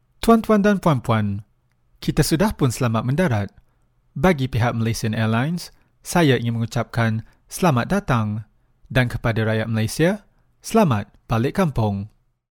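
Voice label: Malay male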